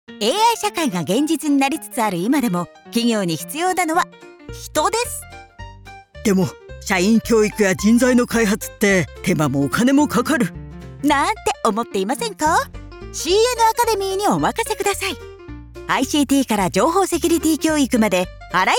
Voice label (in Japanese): BGMあり; CNアカデミー